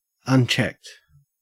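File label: "unchecked", Australian English; pronunciations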